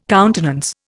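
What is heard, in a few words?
"countenance", Indian English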